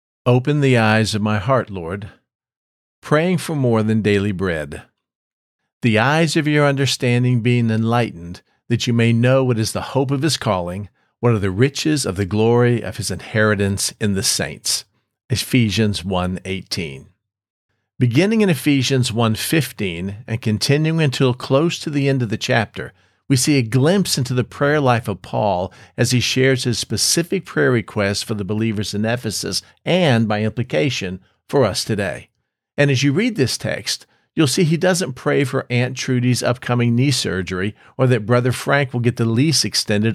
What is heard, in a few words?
voiceover